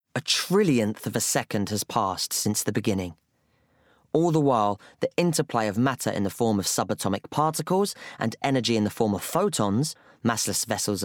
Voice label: Bookread; Astrophysics For People In A Hurry